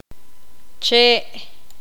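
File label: "árbol", Poqomam, female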